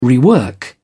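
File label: "rework", British English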